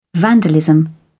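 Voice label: "vandalism", British English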